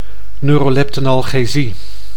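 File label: "neuroleptanalgesie", Dutch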